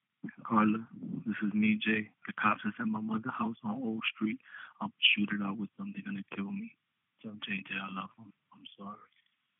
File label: voicemail; DCJ